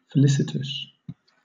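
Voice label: "felicitous", British English; pronunciations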